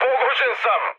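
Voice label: Serbian aircraft damaged mood high, speech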